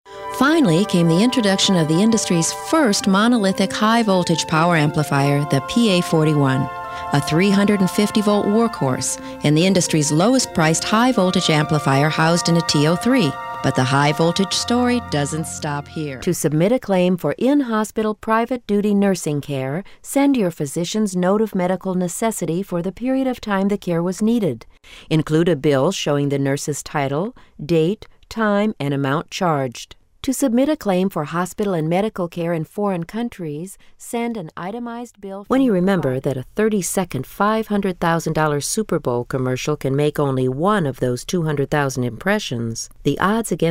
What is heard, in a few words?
Narr